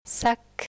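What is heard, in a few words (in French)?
"sac", French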